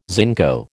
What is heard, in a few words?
"zinco", American